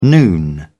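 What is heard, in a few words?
"noon", British English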